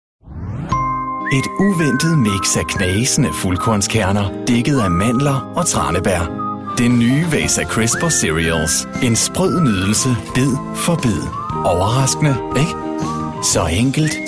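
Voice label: danish male commercial, voice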